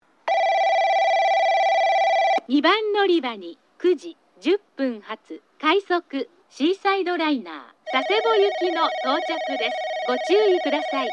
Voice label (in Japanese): 2番のりば接近放送; 快速シーサイドライナー 佐世保行き; ハウステンボス; 英語放送